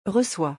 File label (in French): "reçois" French; pronunciation; hard and soft vowels